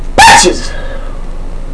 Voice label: coprolalia